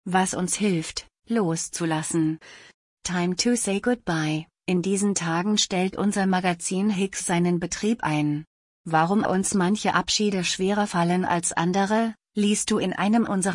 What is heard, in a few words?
Voice over